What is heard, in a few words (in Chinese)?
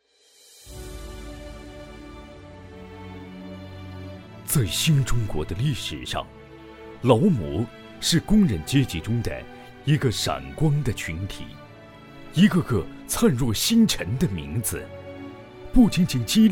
颁奖感人